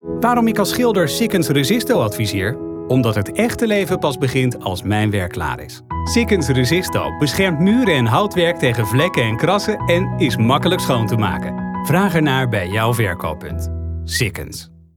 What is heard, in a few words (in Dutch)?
commercial acteren neutraal; sikkens